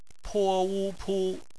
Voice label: "pu", Chinese phonetics